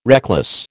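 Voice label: "reckless", American English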